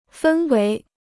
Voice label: "分为", Chinese

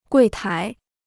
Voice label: "柜台", Chinese

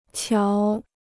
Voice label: "瞧", Chinese

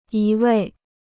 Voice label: "一味", Chinese

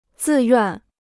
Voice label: "自愿", Chinese

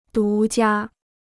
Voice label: "独家", Chinese

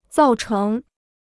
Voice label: "造成", Chinese

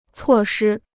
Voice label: "措施", Chinese

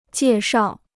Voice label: "介绍", Chinese

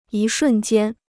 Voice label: "一瞬间", Chinese

